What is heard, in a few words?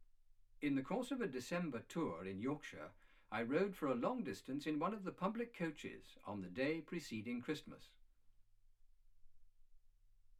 male speech english